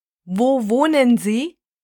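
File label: "Wo wohnen Sie", German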